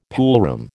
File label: "poolroom", American